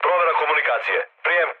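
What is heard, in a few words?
Serbian chat; War Thunder; speech srb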